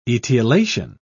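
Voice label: "etiolation", British English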